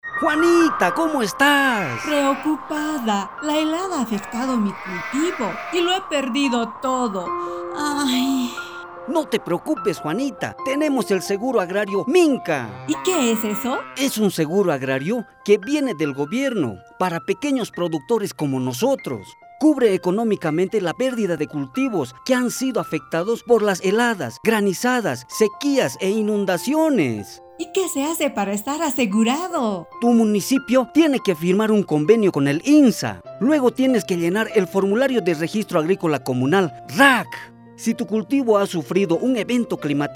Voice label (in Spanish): CASTELLANO